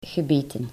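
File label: "gebeten", Dutch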